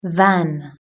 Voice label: van, british english

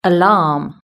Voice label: "alarm", british english

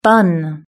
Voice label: "bun", British English

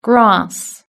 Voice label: "grass", british english

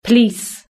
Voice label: "police", British English

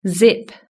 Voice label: "zip", british english

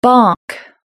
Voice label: "bark", british english

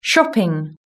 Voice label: "shopping", british english

